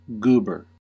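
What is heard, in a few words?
"goober", US English; pronunciations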